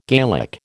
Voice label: "Gaelic", American